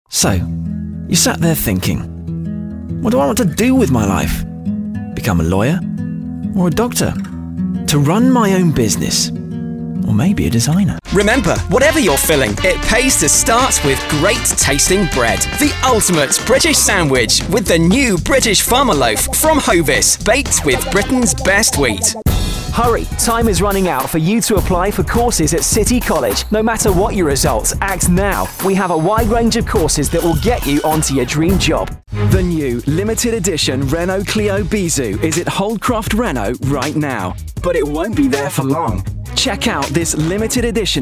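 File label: COMMERCIAL, young UK male voice over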